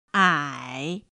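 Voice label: "ǎi", Chinese; pinyin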